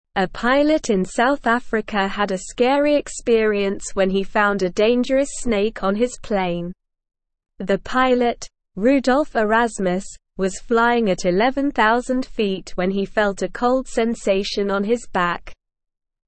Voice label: English Newsroom Beginner SLOW Reading; Snake Found on Plane in South Africa

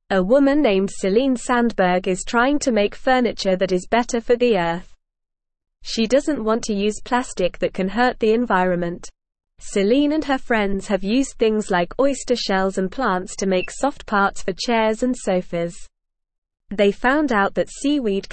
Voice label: English Lower Intermediate NORMAL Reading; Celine Sandberg Makes Earth Friendly Furniture

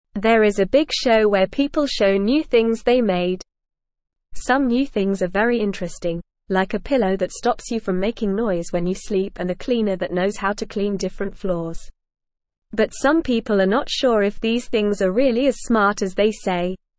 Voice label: Beginner NORMAL Reading, English

